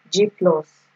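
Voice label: "G plus", Nigerian Pidgin, female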